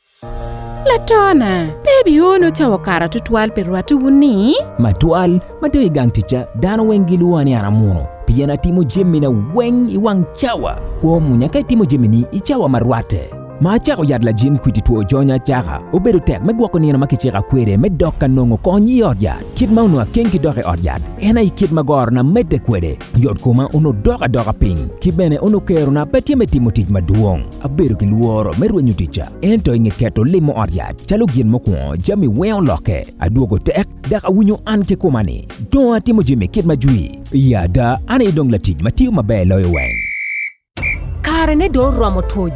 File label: HIV Spot Acholi; ART Adherence Challenges; radio spot